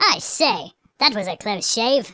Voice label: "missed", speech; Biggles

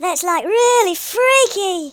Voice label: flawless, speech, Hippy

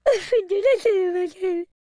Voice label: sad, Italian

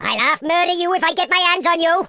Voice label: you'll regret that, Welsh; speech

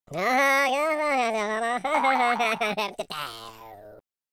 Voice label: taunt, speech Patronising

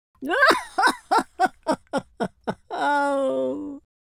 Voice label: sad

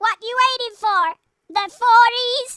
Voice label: "come on then", Grandpa

